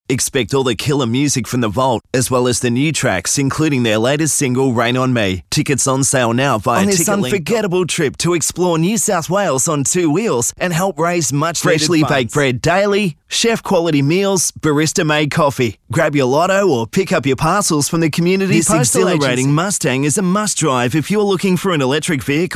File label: Soft Sell